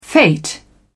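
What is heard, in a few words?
"fate", British English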